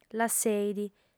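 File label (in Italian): "lasseit", lingua sarda, fem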